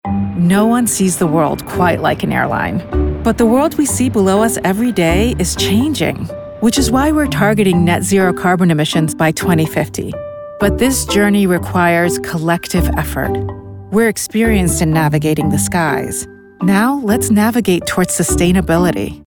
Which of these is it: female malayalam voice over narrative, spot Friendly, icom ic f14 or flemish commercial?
spot Friendly